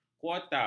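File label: "kuota", Malay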